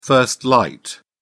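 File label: "first light", British English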